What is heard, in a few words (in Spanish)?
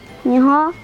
"nueve", Mazateco; números